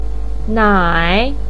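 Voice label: "nai", Mandarin pinyin, tone 3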